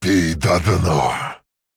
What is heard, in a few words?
Male Worgen Attack; Hearthstone; датамайн обновления 5.0